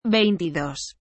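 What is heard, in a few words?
"veintidos", Spanish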